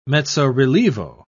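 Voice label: "mezzo-relievo", British English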